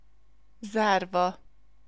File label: Closed pronunced in Hungarian